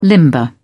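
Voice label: "limber up", British English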